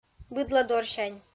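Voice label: "быдладорсянь", Komi, female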